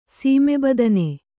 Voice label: "ಸೀಮೆಬದನೆ", kannada